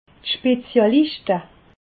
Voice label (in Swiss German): "spezialischte", alsace